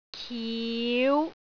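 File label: "kiu5", Cantonese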